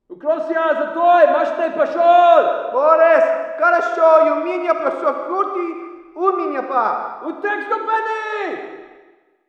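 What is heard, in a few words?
walla rus mountain conversation, Russian; Call of Duty Modern Warfare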